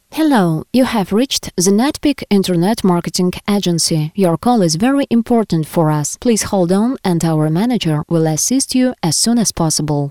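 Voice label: English, interactive voice response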